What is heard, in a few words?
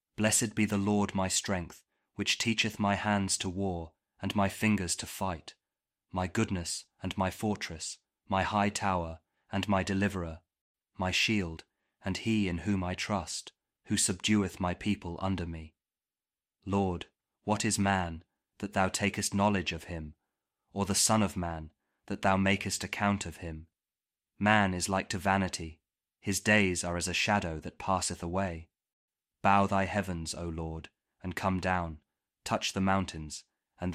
psalm kjv king james audio bible